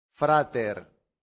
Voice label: "frater", latin; santillana